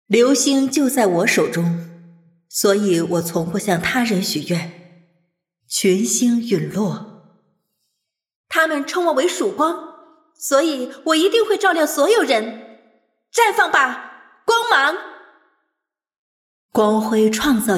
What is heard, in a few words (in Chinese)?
游戏女配十个角色声展